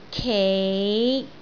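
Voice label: "kei5", Cantonese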